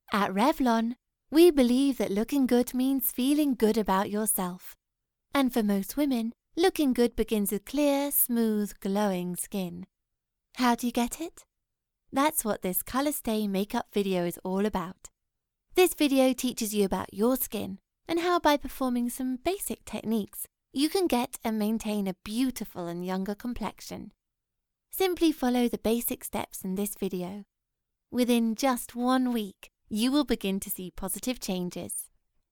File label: Corporate, UK; Voice-over demos